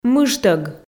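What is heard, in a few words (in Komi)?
"мыжтӧг", Komi, female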